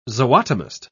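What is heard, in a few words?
"zootomist", British English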